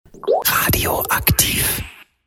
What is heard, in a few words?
radio ident; radio aktiv